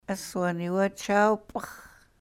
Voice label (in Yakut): aswan iwa chaw p'i-x̱, sahaptin